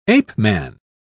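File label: "ape-man", British English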